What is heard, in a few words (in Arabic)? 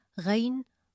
"غَيْن" Arabic